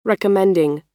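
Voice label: "recommending", US English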